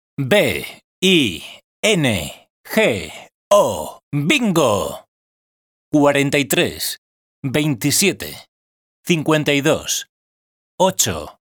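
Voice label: Videogame, voiceover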